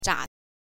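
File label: "zha", Mandarin Chinese, neutral tone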